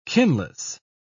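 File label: "kinless", British English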